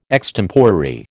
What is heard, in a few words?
"extemporary", American